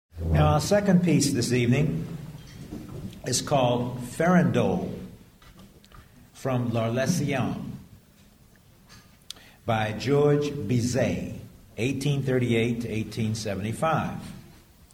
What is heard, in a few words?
narr; Farandole; Cupertino Symphonic Band